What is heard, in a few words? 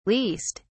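"leased", English, British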